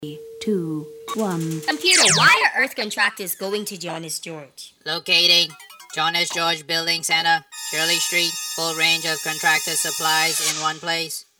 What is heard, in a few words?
Commercial; Construction